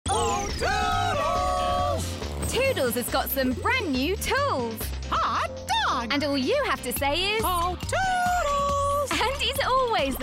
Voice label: Promo; Disney Jr; Oh Toodles